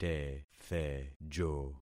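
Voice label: "tethejo", concatenated syllables; Affixing experiment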